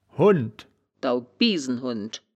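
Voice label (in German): "hunnd", wittlicher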